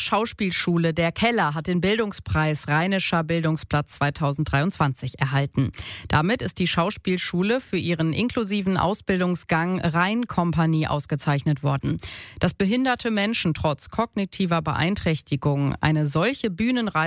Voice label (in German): aircheck nachrichten; 11 30 uhr